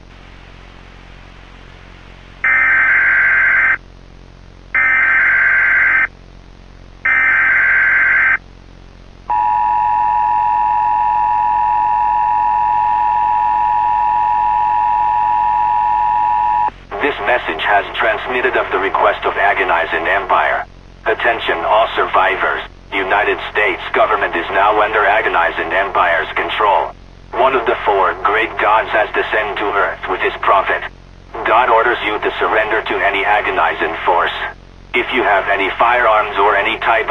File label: broadcast; st mission; hs c4